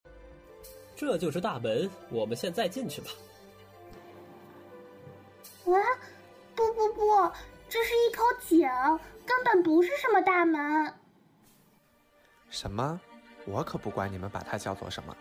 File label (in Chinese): 疑惑